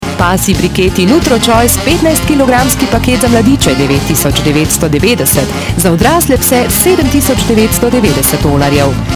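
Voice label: slovenian female voice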